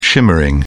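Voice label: "shimmering", British English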